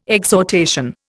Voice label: "exhortation", Indian